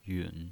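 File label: "yun" Mandarin Chinese pinyin voice, third tone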